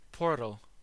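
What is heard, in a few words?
"portal", US English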